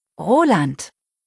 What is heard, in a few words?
"Roland", deutsch; jungennamen